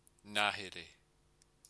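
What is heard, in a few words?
"ngahere", Māori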